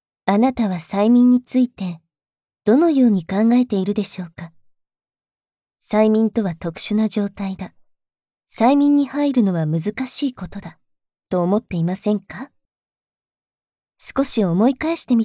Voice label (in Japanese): 説明, ASMR